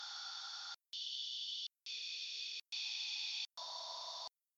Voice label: aiueo noise